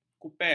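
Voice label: "kupel", Malay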